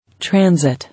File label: "Transit", English